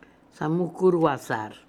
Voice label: "Samookoorooazar", pronounciations; Fourth Way; Beelzebub

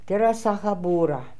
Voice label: "Terasakhaboora", pronounciations; Fourth Way; Beelzebub

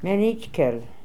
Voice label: "Menitkel", pronounciations; Fourth Way; Beelzebub

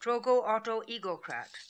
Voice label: "Trogoautoegocrat", pronounciations; Fourth Way; Beelzebub

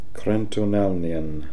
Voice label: "Krentonalnian", pronounciations; Fourth Way; Beelzebub

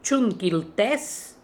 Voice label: Choon Kil Tez, Beelzebub pronounciations; Fourth Way

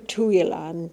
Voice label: "Tooilan", pronounciations; Fourth Way; Beelzebub

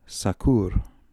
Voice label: "Sakoor", pronounciations; Fourth Way; Beelzebub

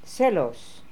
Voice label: "Selos", pronounciations; Fourth Way; Beelzebub

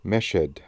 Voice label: "Meshed", pronounciations; Fourth Way; Beelzebub

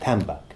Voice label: "Tambak", pronounciations; Fourth Way; Beelzebub

